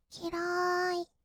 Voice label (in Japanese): 「きらーい」, kawaii voice; 1200 kawaii voice!!; 色々なワンショット